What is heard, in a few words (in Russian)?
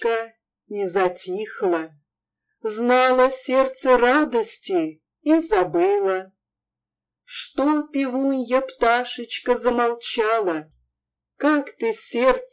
русская песня